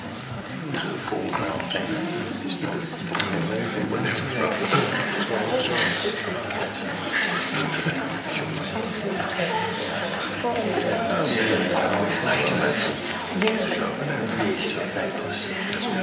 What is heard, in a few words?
quiet conversation; OpenValley